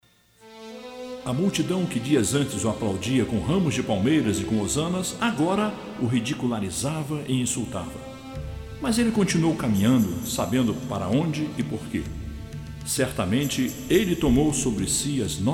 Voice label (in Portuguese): Narração